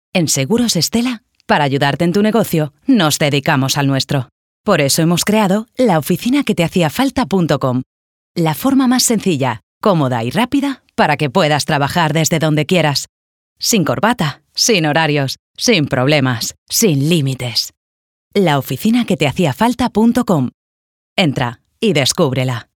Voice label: spanish castilian promos

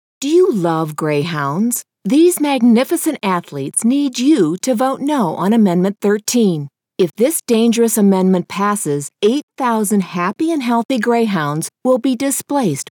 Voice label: english american political ads; Inglés (Estados Unidos)

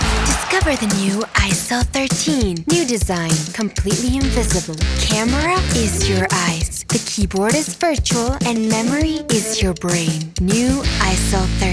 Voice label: english american others

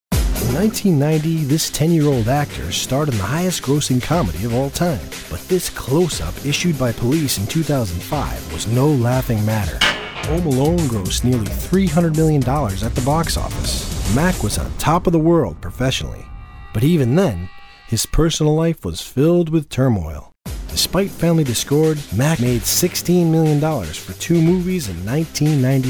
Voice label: english international narration

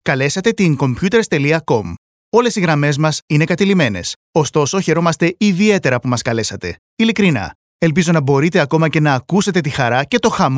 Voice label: Greek ivr

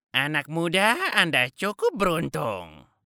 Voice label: indonesian animation

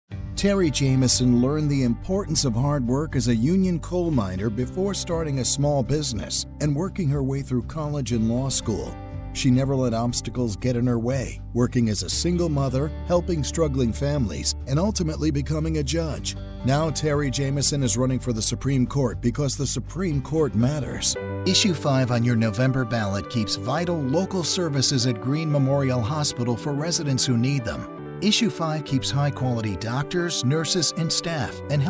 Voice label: english american political ads

